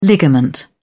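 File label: "ligament", British English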